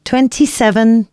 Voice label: announcements female